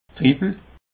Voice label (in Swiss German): "dribbel", Alsace